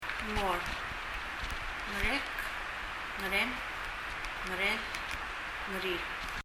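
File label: "ngor" Palauan, mouth; 口・mouth; 身体の各部位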